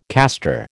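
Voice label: "caster", American English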